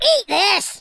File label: fireball, Scotsman; speech